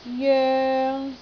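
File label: "joeng3", Cantonese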